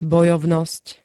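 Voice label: "bojovnosť", Slovak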